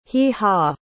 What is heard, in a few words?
"hee-haw", pronounce